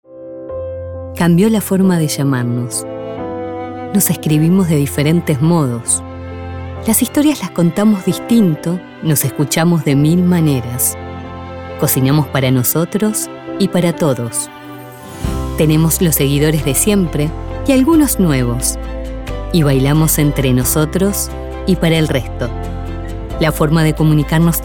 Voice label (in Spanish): spot emocional dia de la madre